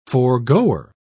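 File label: "foregoer", en, uk British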